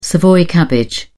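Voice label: "savoy cabbage", English, British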